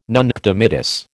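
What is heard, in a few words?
"nunatak", American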